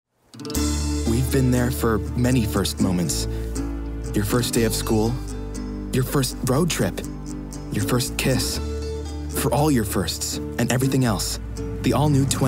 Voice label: Commercial, Male voices